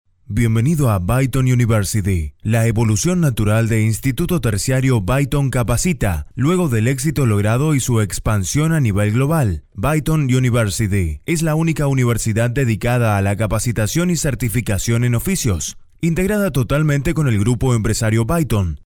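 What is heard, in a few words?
Spanish Latin America male voice over, narrative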